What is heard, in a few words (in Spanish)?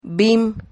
"vim", Silabas